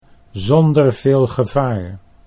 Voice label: "zonder veel gevaar", Dutch

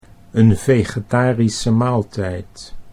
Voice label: "een vegetarische maaltijd", Dutch

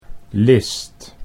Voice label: "list", Dutch

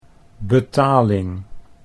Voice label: "betaling", Dutch

